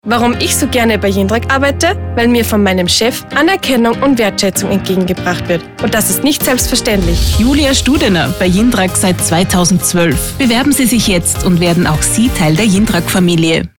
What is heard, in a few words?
SPOT